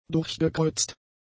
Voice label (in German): "durchgekreuzt", German; adjectives